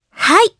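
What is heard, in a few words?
Vox Japanese; Laias; kingsraid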